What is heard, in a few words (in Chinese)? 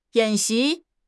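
"演习", Chinese; HSK6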